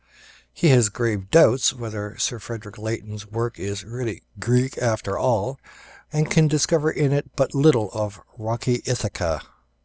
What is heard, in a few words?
librispeech en